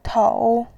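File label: "tou", Mandarin Chinese, third tone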